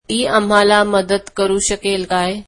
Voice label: marathi can she help us